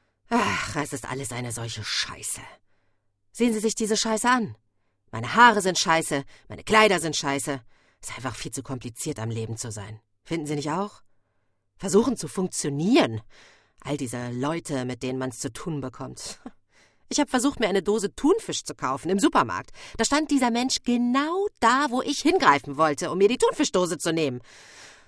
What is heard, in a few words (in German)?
Gebrüllt vor Lachen; Hier erhältst du einen kleinen Eindruck meiner Stimme.